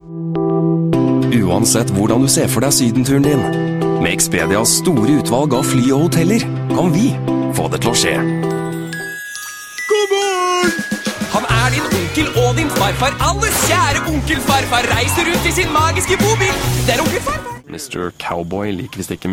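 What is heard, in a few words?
Norwegian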